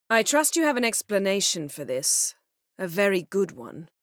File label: female